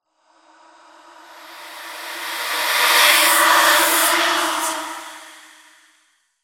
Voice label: Whispers Tense; Silent Hill Downpour